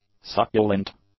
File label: "succulent", English; adjectives